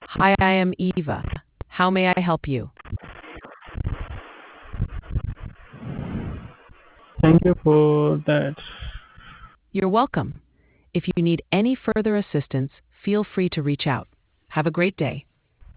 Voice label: conversation